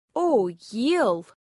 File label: Scottish Gaelic; Phonetics